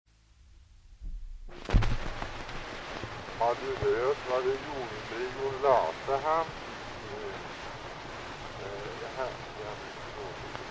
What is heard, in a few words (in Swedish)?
Gårdby, dialekter, sagor; Folkminnen